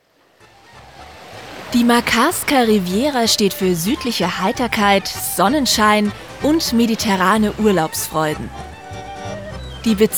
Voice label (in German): Doku; Makarska